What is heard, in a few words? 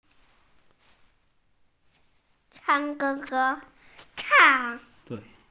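"chang4", Mandarin Chinese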